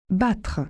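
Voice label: "battre", French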